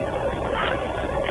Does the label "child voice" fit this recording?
Yes